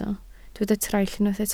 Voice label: "traill", Welsh

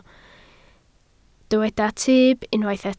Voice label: "tyb", Welsh

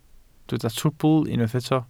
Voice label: "trwbl", Welsh

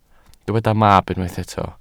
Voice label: "mab", Welsh

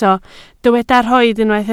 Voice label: "rhoid", Welsh

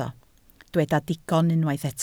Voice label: "digon", Welsh

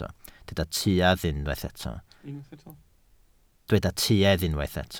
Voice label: "tuedd", Welsh

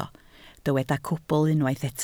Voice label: "cwbl", Welsh

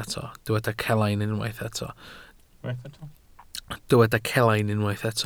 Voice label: "celain", Welsh

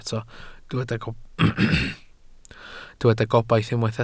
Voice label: "gobaith", Welsh

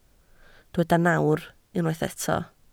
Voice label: "nawr", Welsh

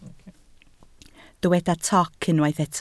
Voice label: "toc", Welsh

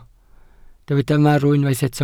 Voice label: "marw", welsh

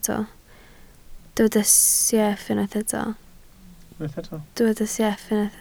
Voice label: "sieff", Welsh

